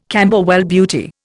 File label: "Camberwell Beauty", Indian